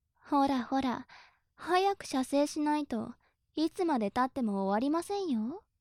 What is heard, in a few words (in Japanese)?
お仕置き中音声